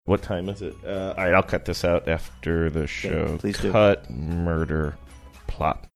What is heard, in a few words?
quietly saying what time is it alright